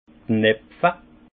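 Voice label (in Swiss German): "knepfe", alsace Haut-Rhin; vin; nouer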